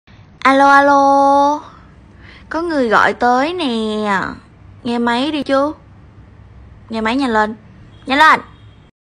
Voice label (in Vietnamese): giong con gai noi alo alo co nguoi goi toi ne nghe may nhanh len